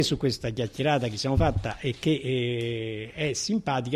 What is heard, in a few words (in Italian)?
chiacchierata simpatica